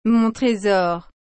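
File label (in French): "mon trésor", French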